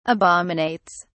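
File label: "abominates", British English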